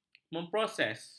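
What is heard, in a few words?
"memproses", Malay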